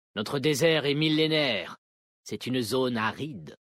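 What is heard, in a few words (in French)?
French Homme Blague; Vulpérins; Aride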